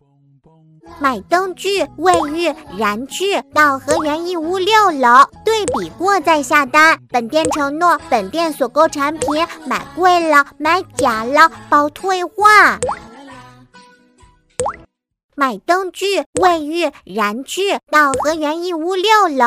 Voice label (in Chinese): 女 女童 灯具广告 4-5岁; 可爱